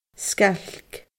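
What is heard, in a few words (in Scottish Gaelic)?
"sgailc", Scottish Gaelic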